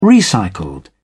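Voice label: "recycled", British English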